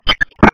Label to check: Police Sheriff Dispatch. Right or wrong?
Right